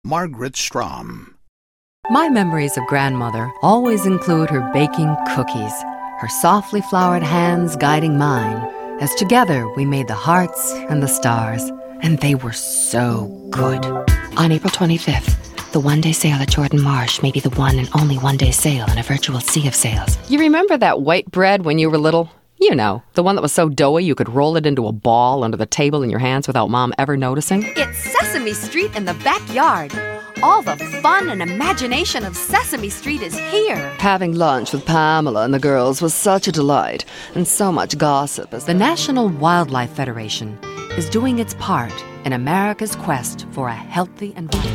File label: Commercial